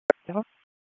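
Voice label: Raleigh Police Southeast District Dispatch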